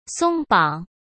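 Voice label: "松绑", Mandarin Chinese; chinese-flashcards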